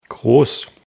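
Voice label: "gross", German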